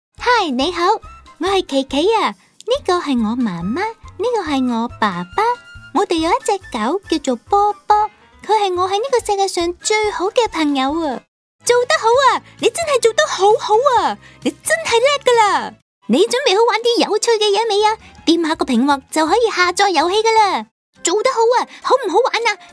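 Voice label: Cantonese toys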